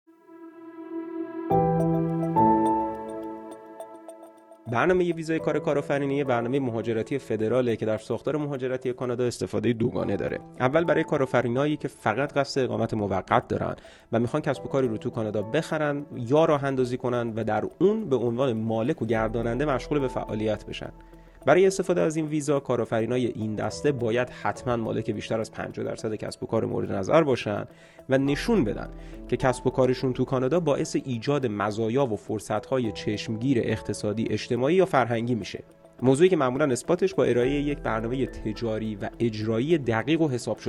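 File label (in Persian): Farsi, voices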